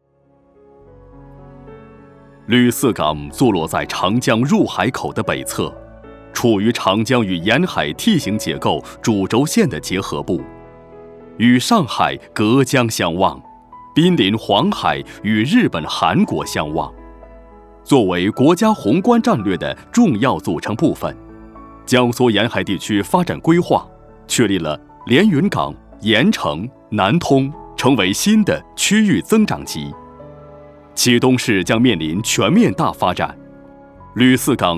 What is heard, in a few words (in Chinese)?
男 汇报片; 吕四港